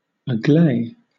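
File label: "agley", British English; pronunciations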